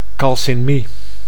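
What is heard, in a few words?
"calcinmie", Dutch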